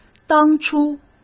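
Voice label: "当初", Mandarin Chinese; hsk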